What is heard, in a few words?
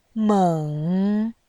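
"meng", Mandarin, tone 3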